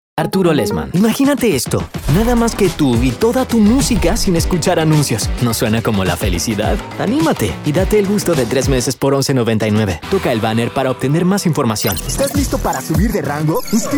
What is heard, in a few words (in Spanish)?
LOCUTOR COMERCIAL